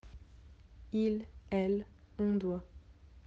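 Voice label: "devoir", French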